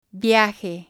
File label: "viaje", Spanish